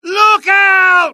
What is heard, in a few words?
alert, dialogue UK; mohaa